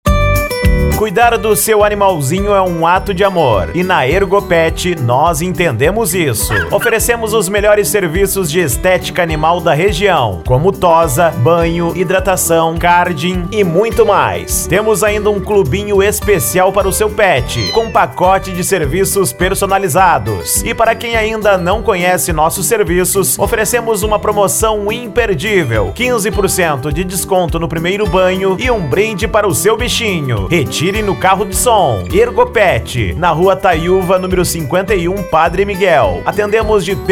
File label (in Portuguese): Locutor; ErgoPet Estetica Animal; Rio De Janeiro RJ